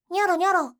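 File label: "nyoronyoro", Japanese; doubutsu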